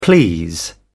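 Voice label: "please", British English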